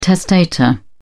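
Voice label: "testator", English, British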